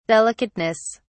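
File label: "delicateness", British English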